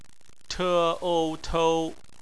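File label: "tou", Mandarin Chinese; phonetics; bpmf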